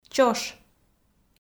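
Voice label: "чош", Komi-Zyrian, female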